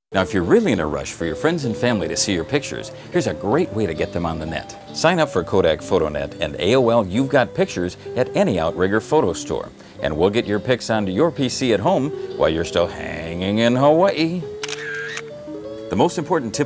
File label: Voiceover; Outrigger Waikiki Photoshops